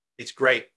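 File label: Voice Acting, Spanish, male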